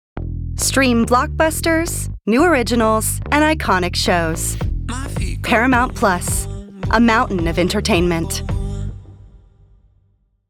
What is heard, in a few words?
Promo